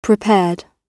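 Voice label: "prepared", British English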